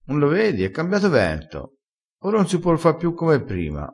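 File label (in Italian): "cambia vento", dialettale crespinese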